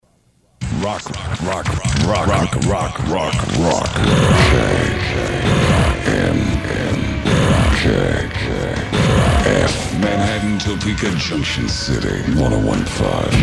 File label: Sweeper Montage